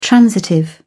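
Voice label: "transitive", British English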